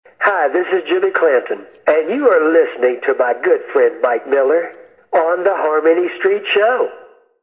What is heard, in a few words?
promo reverb